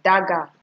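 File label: "dagger", Nigerian Pidgin, female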